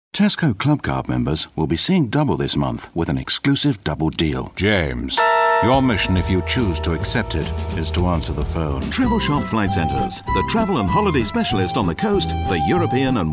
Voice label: commercials